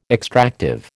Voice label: "extractive", American